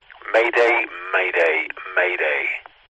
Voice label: vehicles airplane pilot radio chatter comms control tower says mayday